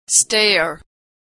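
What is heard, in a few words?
"stair", American English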